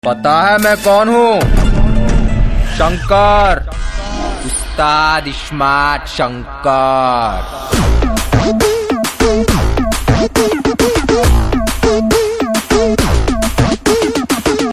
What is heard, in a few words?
Dialogue Ringtone; Ismart Shankar